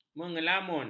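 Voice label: "mengelamun", Malay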